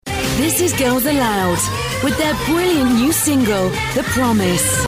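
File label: English UK, Werbung, Cool; Fremdtake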